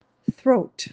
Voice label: "throat", anglais; vocabulaire